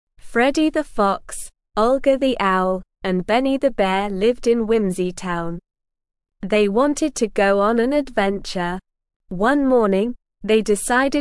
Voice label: ESL Short Stories for Kids Beginner SLOW Reading; The Journey Beyond the Silver Hills